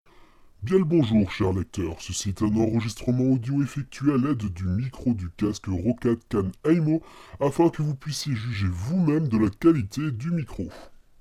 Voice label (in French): monstre, test roccat khan aimo; vonguru